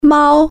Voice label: "cat", Chinese

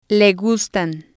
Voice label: "le gustan", spanish

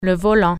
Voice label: steering wheel, french

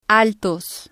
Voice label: "altos", spanish

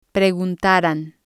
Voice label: "preguntaran", spanish

